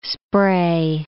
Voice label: "spray", English